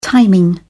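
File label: "timing", British English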